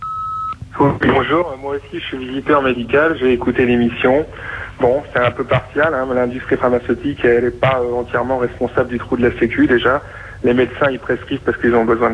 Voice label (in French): repondeur; Visiteur medical